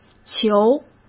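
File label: "球", Mandarin Chinese; chinese-flashcards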